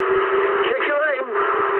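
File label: English player friendly fire mood medium; speech; War Thunder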